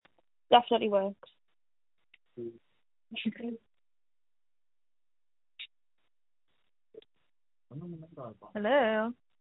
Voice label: call recording